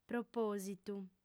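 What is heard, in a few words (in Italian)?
"propòsitu", lingua sarda, fem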